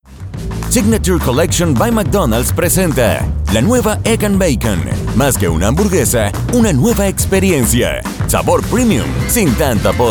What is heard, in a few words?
spanish venezuelan commercial